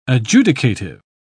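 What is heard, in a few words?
"adjudicative", British English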